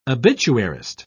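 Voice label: "obituarist", British English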